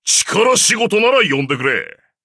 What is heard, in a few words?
levelup, ja; Cookie Run